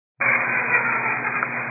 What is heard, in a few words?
122 725MHz, scanner radio